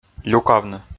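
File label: "люкавны", Komi, male; kpv audio dict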